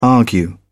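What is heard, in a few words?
"argue", British English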